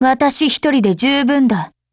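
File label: FORMATION Japanese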